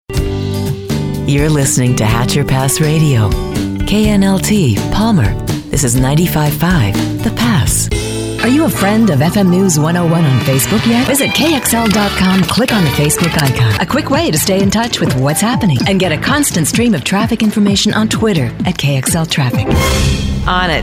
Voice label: Imaging

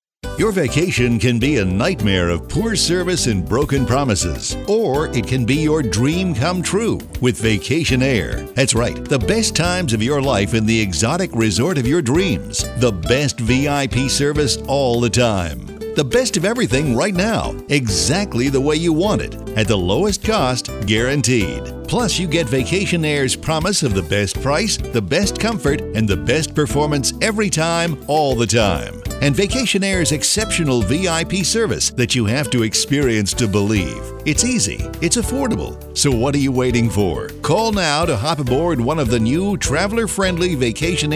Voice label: Infomercials

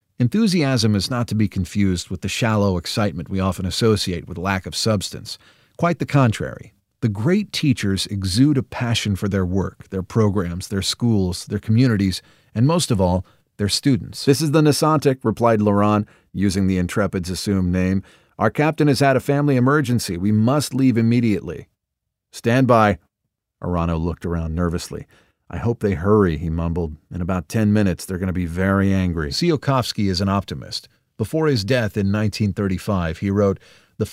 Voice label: Audiobook

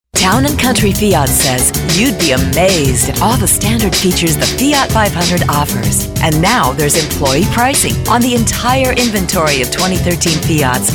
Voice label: Car Spots

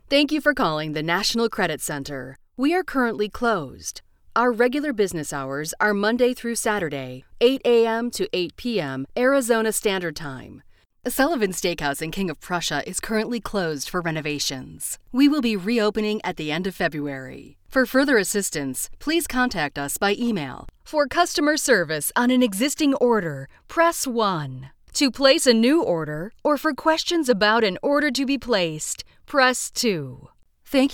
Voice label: Telephone On Hold IVR

